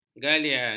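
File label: "galian", Malay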